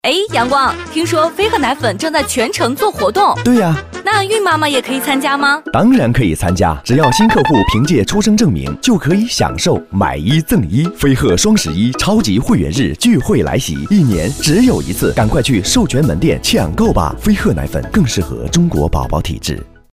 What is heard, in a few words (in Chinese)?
电台广告, nansheng; 阳光飞鹤奶粉